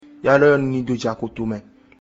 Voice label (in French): dans le village de mon oncle, aja; Mes origines